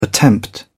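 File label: "attempt", English, British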